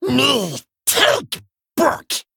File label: Male Kobold